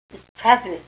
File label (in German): "pfappl", Erzgebirgisch